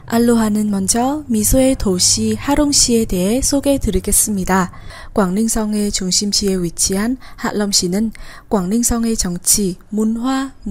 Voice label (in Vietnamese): Thu Am Tieng Han Huong Dan Tour cung Xe Dien; Aloha Ha Long; thu am da ngon ngu